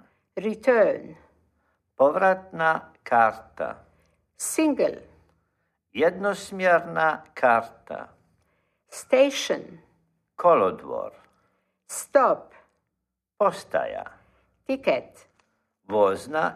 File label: trains, Croatian Phrasebook; Croatian (Hrvatski) language learning pack